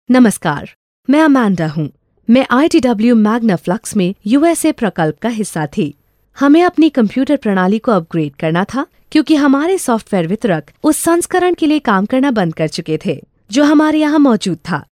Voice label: hindi female voiceover locutora india; locutora hindú